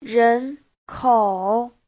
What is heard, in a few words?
"ren2kou3", Chinese; Giáo trình Hán ngữ